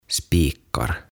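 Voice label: "Spikar", Swedish; Naulat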